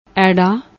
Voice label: "aerra", Punjabi; ਐੜਾ